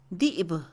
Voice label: cuál, Mixe, Mujer; INALI

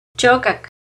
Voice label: Ella(él) lloró-Chocac, Náhuatl; NAHUATLAHTOLLI

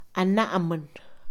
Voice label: Mixe, Mujer; INALI

